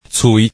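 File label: "cui1", Hakka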